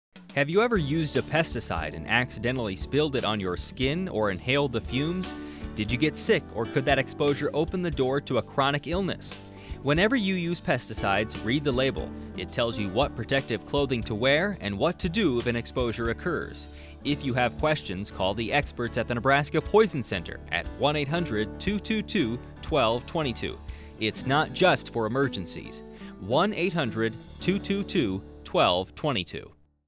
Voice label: Applicators Male